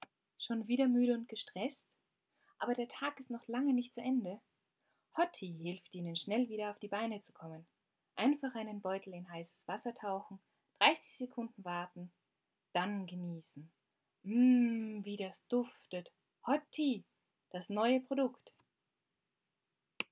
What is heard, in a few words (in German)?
Werbung